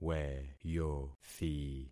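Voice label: "weyothi", concatenated syllables; Affixing experiment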